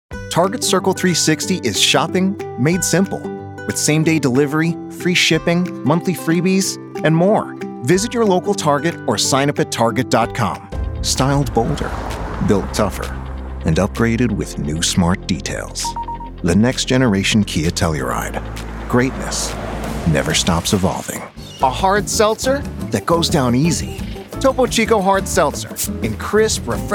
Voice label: Commercial, en-AU